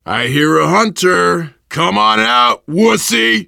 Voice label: heard hunter, voice, biker; Left 4 Dead 2; left4dead2 dlc3; survivor